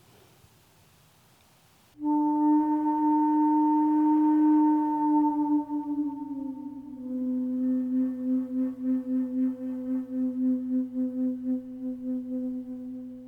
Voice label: Meditation; Meditation: The Practice of RAIN; Tara Brach